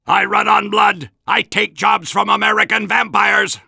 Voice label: Soldier robot

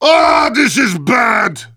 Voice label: negative vocalization; Heavy

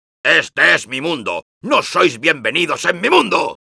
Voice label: taunts, es

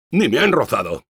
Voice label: Engineer cheers, es; "Ni me han rozado."

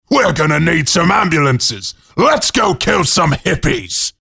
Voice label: battlecry; Saxton Hale

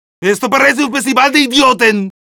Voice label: medic taunts, es

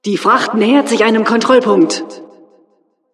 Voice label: announcer cart defender warning, de

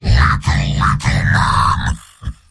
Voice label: Heavy domination

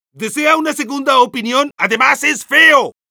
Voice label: medic taunts, es; ¿Desea una segunda opinión? ¡Ademas es feo!; Dominación

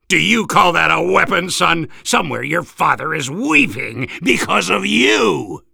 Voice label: Soldier weapon taunts

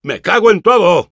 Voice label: negative vocalization, es; Engineer

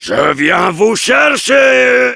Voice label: Heavy yell fr

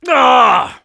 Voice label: Spy negative vocalization, fr

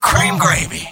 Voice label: Engineer cheers

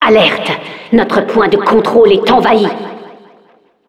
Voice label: Announcer control point warning, fr

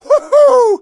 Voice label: Taunt demo conga fun

